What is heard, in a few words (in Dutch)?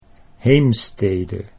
"Heemstede", Dutch